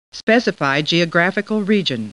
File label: Computer Voice; Star Trek